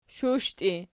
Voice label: "shushtii", Archi

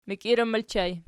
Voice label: "mikiiran", Archi

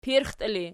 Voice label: "pirxtii", Archi

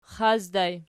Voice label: xaci ergpl, archi

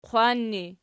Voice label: "qwan", Archi

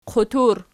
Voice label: "qutur", Archi

